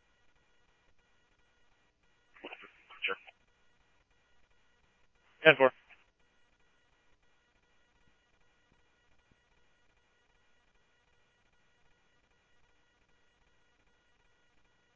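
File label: police department, scanner audio trunk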